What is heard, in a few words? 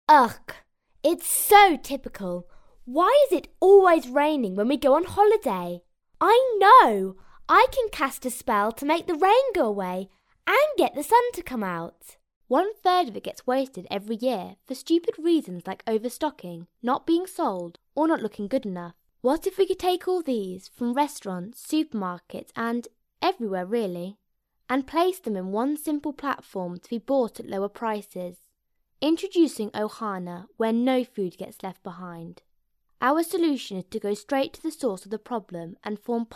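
English, female, girl; lektoring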